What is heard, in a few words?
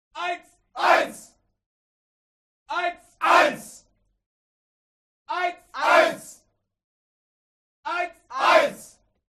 CROWD Military Shout German "One"; HUMAN; smartsound